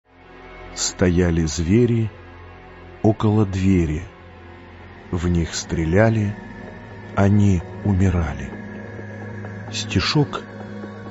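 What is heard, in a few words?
Russian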